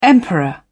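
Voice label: "emperor", British English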